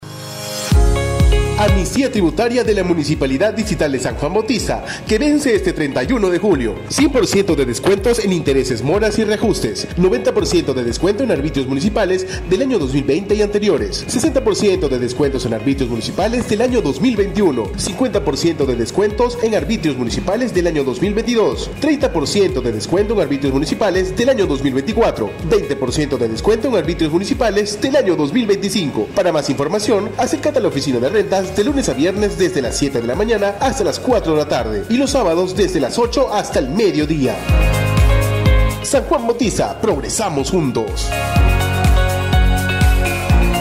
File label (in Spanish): SPOT RADIAL; JULIO RENTAS 2025